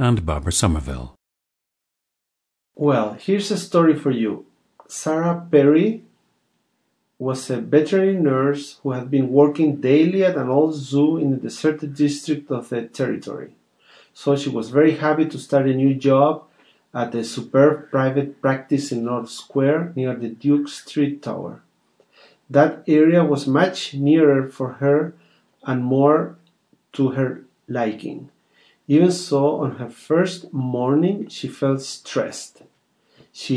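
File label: chile, International Dialects of English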